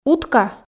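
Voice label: "утка", Komi, female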